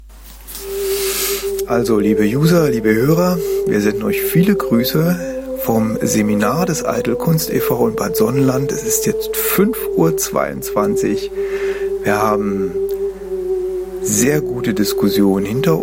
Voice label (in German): ansage